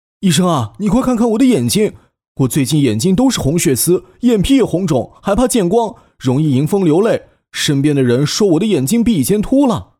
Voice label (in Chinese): 角色患者; 温暖磁性的声音